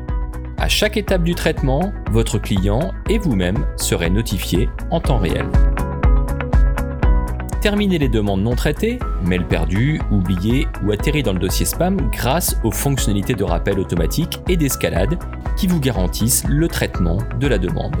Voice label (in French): VOIX OFF HELPDESK